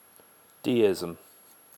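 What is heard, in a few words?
"deism", British English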